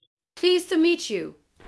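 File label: Voice Acting Spanish Female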